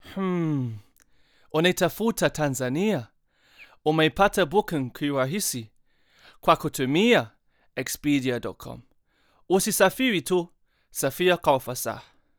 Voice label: Swahili commercial

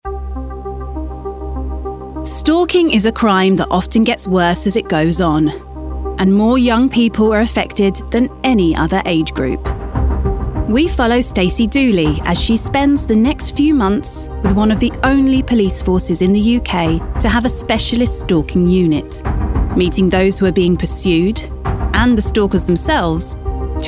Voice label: Documentary

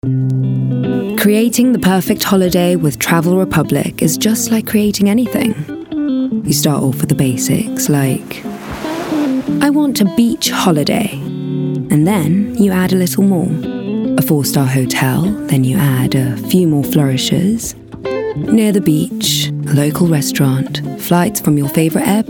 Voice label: Ad; Travel Republic

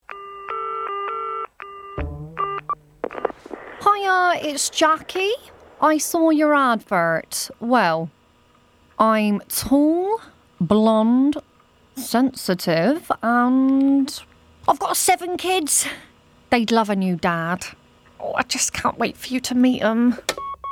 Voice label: character

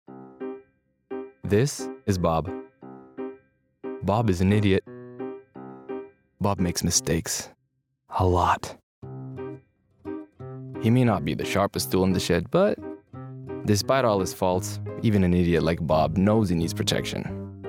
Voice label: VO Reel Commercial Montage

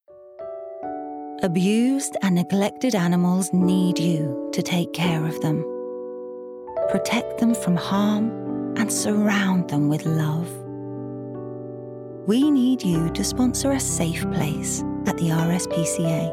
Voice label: Reassuring sympathetic; RSPCA

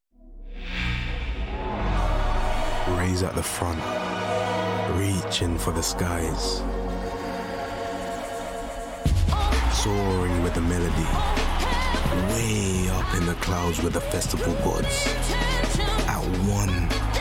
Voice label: promo; stv

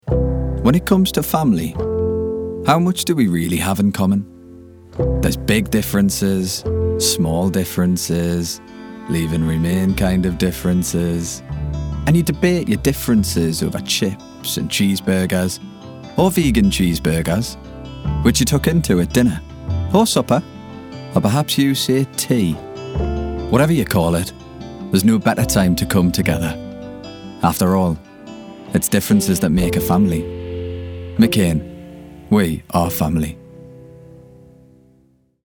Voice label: Ad; McCain

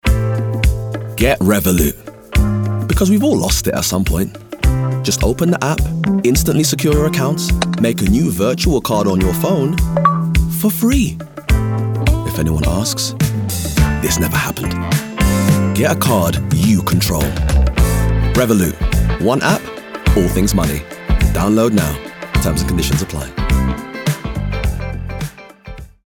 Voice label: Ad; Revolut

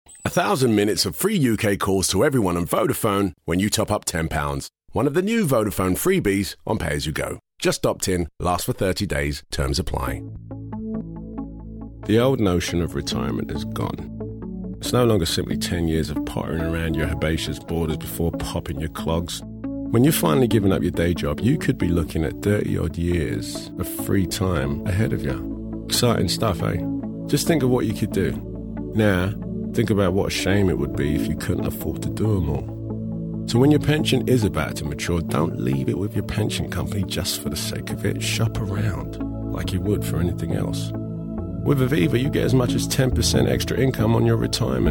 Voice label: Commercial Reel

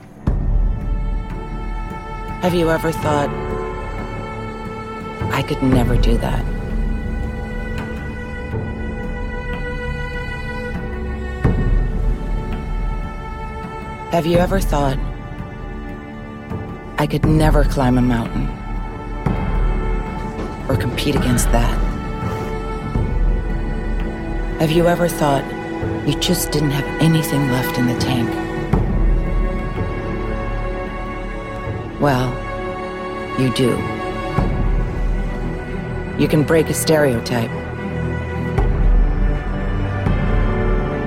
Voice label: narrative

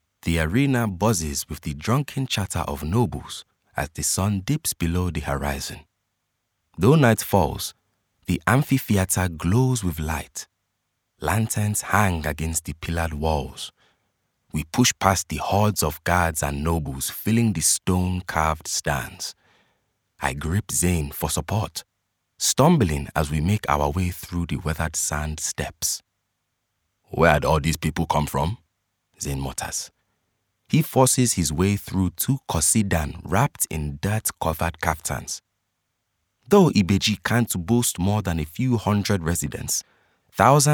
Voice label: Audiobook, Edgy, fresh and distinguished

